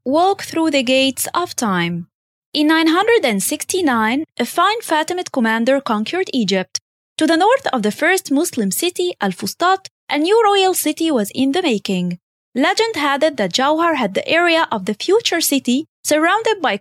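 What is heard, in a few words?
Arabic, female, english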